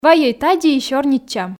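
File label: "вайӧй тадзи и сёрнитчам", Komi, female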